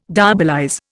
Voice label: "diabolize", Indian

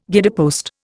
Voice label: "guidepost", Indian

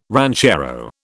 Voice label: "ranchero", British

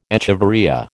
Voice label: "echeveria", American

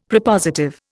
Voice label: "Prepositive", Indian; Dictionary

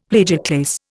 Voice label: "plagioclase", Indian; Dictionary

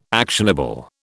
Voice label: "actionable", British

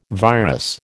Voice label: "virus", American

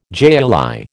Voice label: "jai alai", American

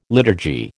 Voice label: "liturgy", American

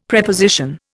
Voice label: "Preposition", Indian; Dictionary

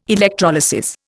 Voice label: "electrolysis", Indian

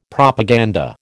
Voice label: "Propaganda", American

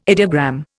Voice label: "ideogram", Indian

